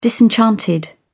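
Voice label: "disenchanted", British English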